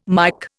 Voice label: "Mike", Indian

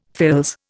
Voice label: "fils", Indian

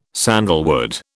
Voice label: "sandalwood", British

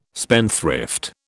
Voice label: "spendthrift", British

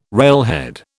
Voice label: "railhead", British

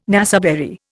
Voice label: "naseberry", Indian

